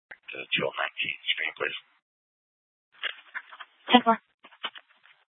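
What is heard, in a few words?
Police Department North Dispatch, scanner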